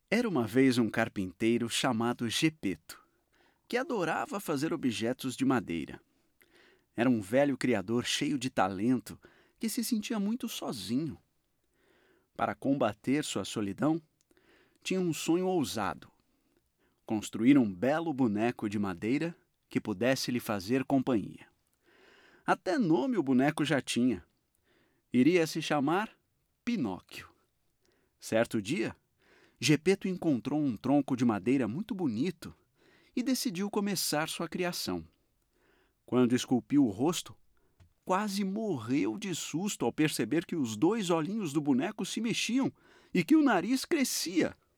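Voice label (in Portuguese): Português - Brasileiro, Narração de estória infantil, Voz Madura, Masculino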